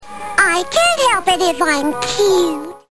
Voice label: cute